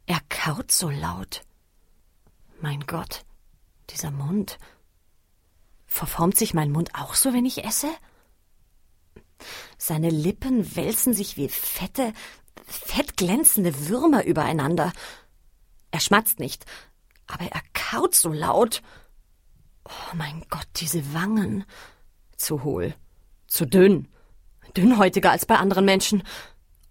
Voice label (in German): Hoerbuch; John von Dueffel; Elite I.; LOFT VOICES